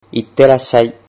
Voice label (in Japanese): "itterashai", Japanese